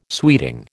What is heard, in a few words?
"sweeting", American